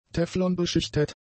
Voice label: "teflonbeschichtet", German; adjectives

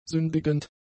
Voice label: "sündigend", german; adjectives

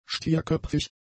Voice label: "stierköpfig", German; adjectives

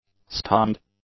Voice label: "stunned", English; adjectives

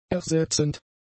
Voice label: "ersetzend", German; adjectives

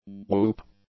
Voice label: "whoop", English; nouns

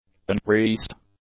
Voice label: "uncreased", English; adjectives

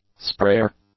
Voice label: "sprayer", english; nouns

